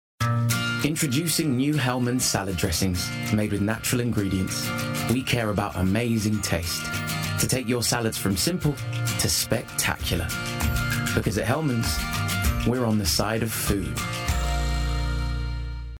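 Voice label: Salad Dressing Commercial, Male, Assured, Blokey, Bright, Conversational, Friendly, Warm; Helmans; United Voices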